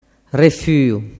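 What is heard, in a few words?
"refuu", Sicilian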